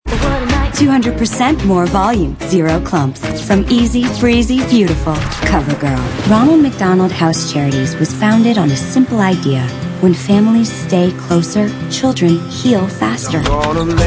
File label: female, contemp